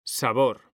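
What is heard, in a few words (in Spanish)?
"sabor", male; mekanta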